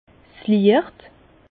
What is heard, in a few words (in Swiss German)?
"liecht", Bas Rhin; vin; lumière